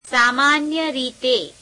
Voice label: gujarati usually; સામાન્ય રીતે [seāmeāne'y reīte]; Adverbs